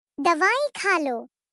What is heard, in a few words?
Dawai Kha Lo Baby Voice